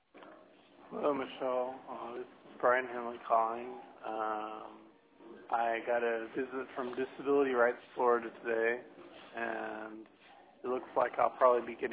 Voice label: voicemail